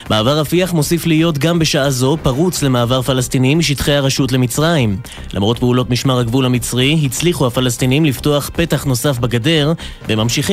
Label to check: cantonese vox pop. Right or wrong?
Wrong